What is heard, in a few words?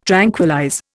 "tranquillize", Indian